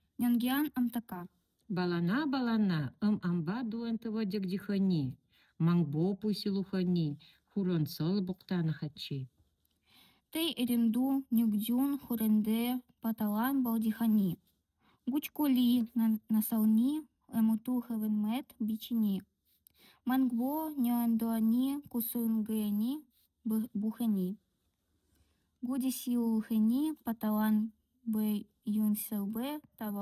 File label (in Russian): сказка